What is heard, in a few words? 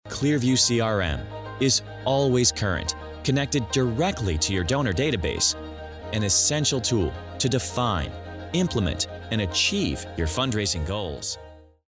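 English US, male, corporate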